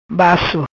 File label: "Basso", Macanese; Baixo; Low